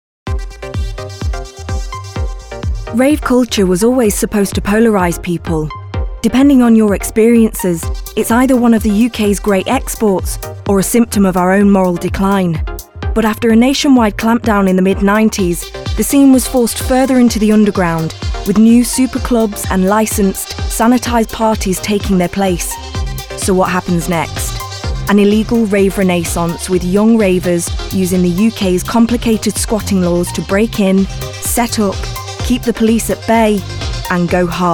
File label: english british documentaries